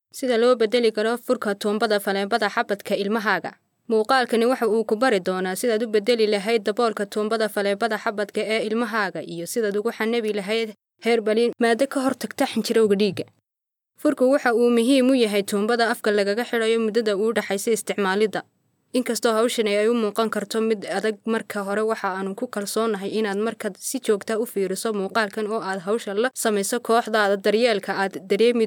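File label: Somali female voice over, narrative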